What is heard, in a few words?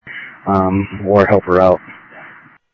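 Sheriff Lake Patrol, scanner audio trunk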